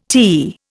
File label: "tea", Indian